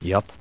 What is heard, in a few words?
"yup", conscript; hl2